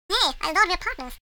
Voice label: crazy; wormux